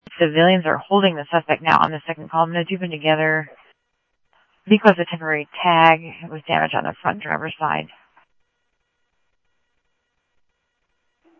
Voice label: Mesa Simulcast, MESA PD, scanner audio